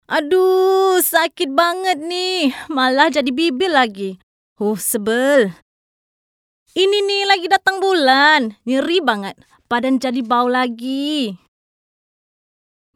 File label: Indo Script